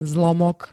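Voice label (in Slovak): "zlomok", Slovak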